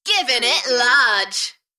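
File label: British female Givin it large Enthusiastic; beanstalk audio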